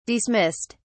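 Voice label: "dismissed", English, British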